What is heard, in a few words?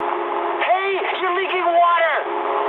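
damaged water mood high, speech English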